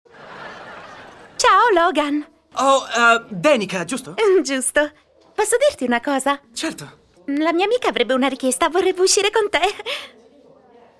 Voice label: italian tv shows